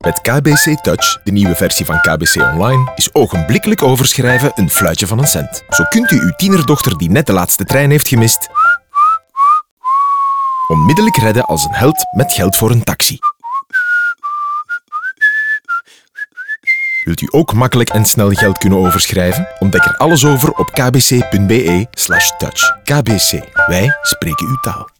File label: radio; radio-touch-trein-gemist